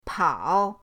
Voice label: "pao3", pinyin

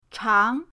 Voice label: "chang2", pinyin

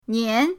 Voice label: "nian2", pinyin

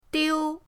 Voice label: "diu1", pinyin

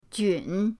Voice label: "jun3", pinyin; pinyindct-open

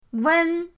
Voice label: "wen1", pinyin; pinyindct-open

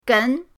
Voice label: "gen2", pinyin; pinyindct-open

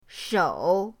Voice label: "shou3", pinyin

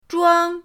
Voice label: "zhuang1", pinyin

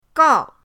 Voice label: "gao4", pinyin

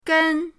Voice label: "gen1", pinyin

